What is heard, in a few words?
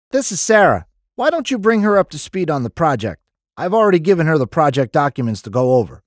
Mental Health Awareness Professional male; NIIT; Global Mental Health